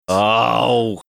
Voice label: drawn out oh